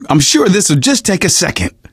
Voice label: finale button press lift, survivor voice; Left 4 Dead 2; Source Engine; video games; manager

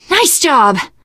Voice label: nice job, teengirl; survivor voice

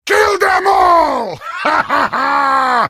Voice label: heavy sandwich taunt, vo

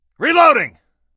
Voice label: reload, male; video games; npc; source engine; ep2

